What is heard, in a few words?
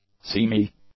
"seemy", English; adjectives